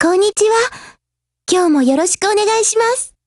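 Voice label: HELLO Japanese, Voice; GF Alarm; FN49 Mod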